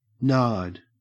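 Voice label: "nard", English, Australian accent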